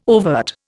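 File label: "overt", Indian English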